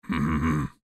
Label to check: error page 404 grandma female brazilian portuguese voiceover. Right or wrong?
Wrong